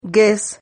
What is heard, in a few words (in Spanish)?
"gues", Silabas